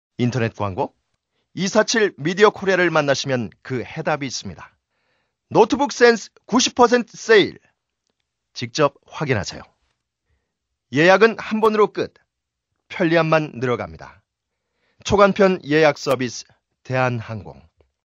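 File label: Korean male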